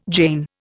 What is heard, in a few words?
"jean", Indian